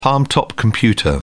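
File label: "palmtop", British English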